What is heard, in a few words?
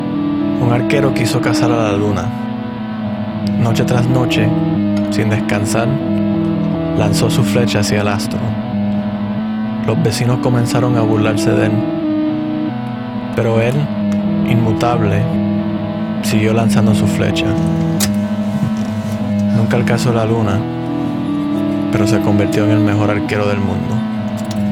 spanish